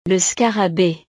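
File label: "le scarabee", French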